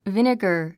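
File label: "vinegar", English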